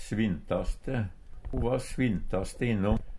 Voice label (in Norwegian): svintaste, Numedalsmål; rask; Adverb